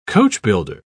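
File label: "coachbuilder", English, British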